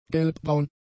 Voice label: "gelbbraun", German; adjectives

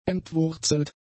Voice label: "entwurzelt", German; adjectives

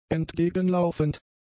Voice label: "entgegenlaufend", German; adjectives

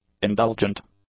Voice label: "indulgent", English; adjectives

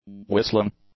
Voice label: "whistling", English; nouns

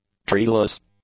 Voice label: "treeless", English; adjectives

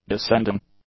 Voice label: "descending", english; adjectives

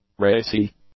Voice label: "racy", English; adjectives

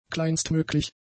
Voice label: "kleinstmöglich", German; adjectives

